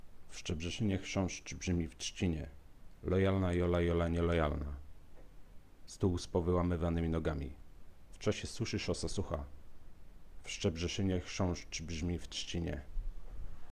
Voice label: HiFiMAN Edition S mic test